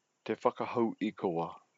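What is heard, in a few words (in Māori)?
"te whakahou ikoa", Māori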